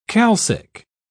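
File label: "calcic", English, British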